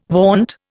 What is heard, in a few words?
"vaunt", Indian